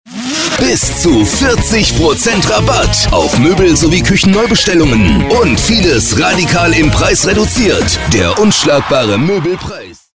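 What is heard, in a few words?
German, male, commercial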